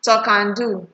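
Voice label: "talknado", Nigerian Pidgin, female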